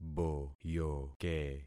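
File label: "boyoke", concatenated syllables; Affixing experiment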